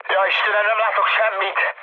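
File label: Hungarian searchlite mood med, speech; War Thunder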